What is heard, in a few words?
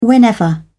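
"whenever", British English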